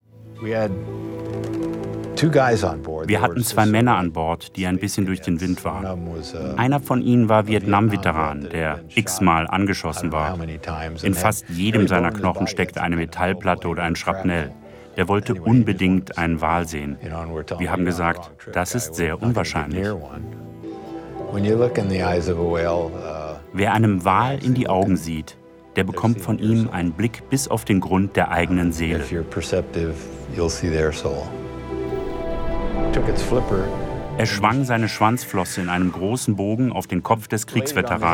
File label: Voiceover